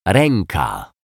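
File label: "reka", Polish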